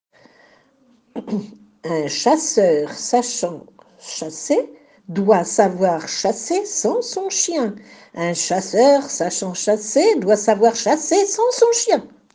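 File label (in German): französisch Zungenbrecher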